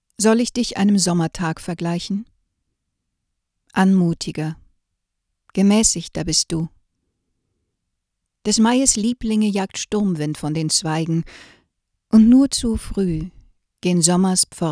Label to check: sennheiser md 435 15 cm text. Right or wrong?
Right